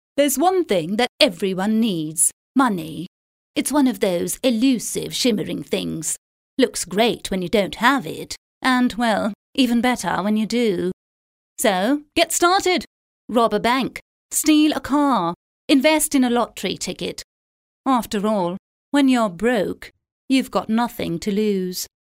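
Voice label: middle uk eng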